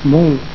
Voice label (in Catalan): "molt", catalan